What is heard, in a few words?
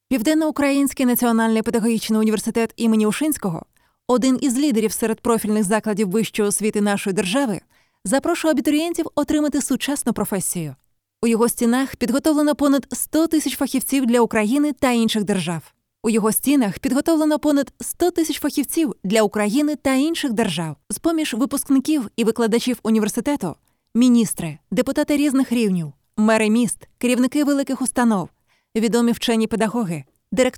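Ukrainian, female, narration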